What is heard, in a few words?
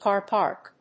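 "car park", English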